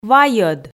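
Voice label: "вайӧд", Komi, female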